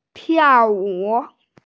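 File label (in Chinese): "tiao4wu3", Mandarin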